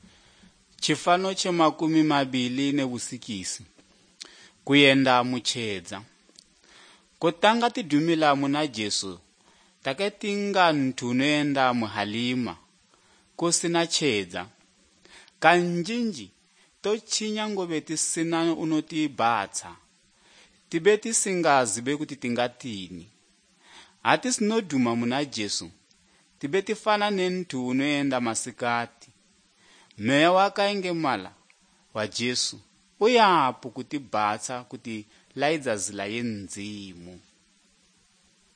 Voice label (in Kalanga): good news kalanga lilima, drama